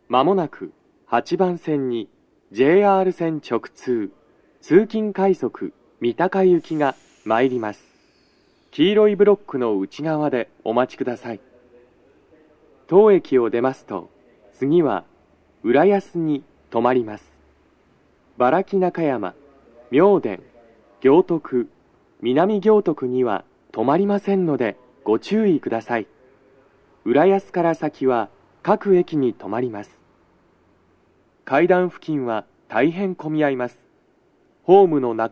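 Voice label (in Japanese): 接近放送 男声, 通勤快速 三鷹行き (混雑付帯); 収録者:こたけ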